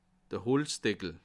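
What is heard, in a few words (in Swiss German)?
"holtzdeckel", Bas Rhin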